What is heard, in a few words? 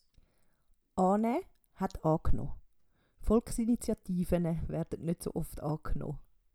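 "aanae", Swiss German vocabulary; Wortschatz Kapitel 7; Kapitel 7 Zusatzwortschatz 4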